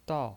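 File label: "dao", Mandarin Chinese pinyin voice, fourth tone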